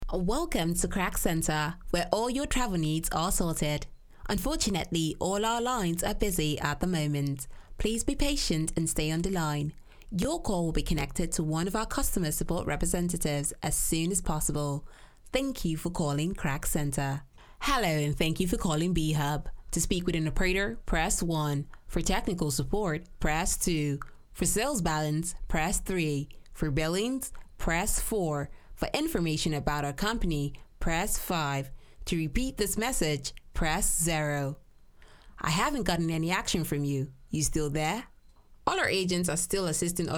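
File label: Ivr prompts, Nigerian female Voiceover artist; based in Nigeria, working out of my cozy home studio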